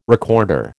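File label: "recorder", American English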